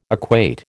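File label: "equate", American English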